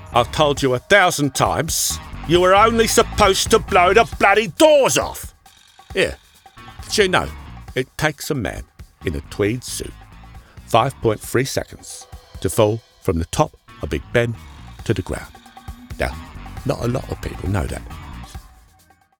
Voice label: impression, Voice, music; MICHAEL CAINE